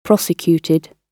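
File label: "prosecuted", British English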